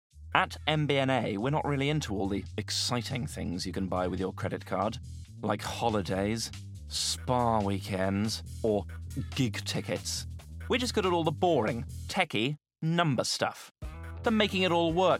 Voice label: Commercial; MBNA